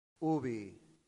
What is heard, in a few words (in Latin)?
"ubi", latin; santillana